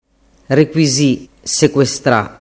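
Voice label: "requisi sequestra", Sicilian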